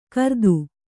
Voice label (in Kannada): "ಕರೆತ", Kannada